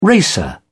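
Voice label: "racer", English, uk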